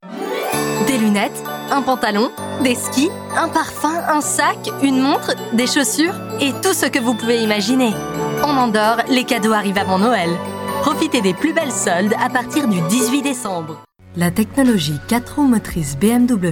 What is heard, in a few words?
French